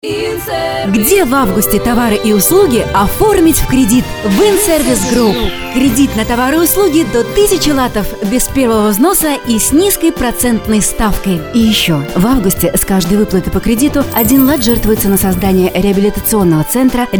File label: russian f commercial; voice demos